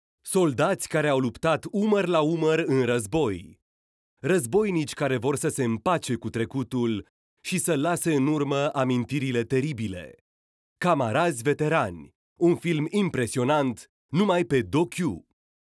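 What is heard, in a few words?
romanian male commercial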